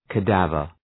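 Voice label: "cadaver", English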